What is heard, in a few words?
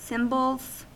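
"cymbals", US English; pronunciations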